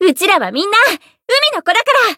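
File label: Voice variable chant, Japanese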